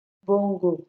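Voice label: "bongo", Nigerian Pidgin, female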